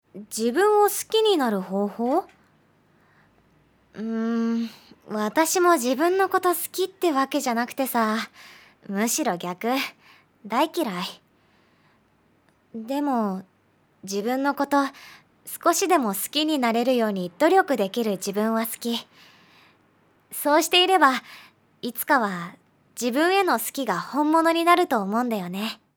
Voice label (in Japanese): アニメ 等身大 25歳くらい